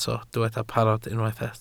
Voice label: "parod", Welsh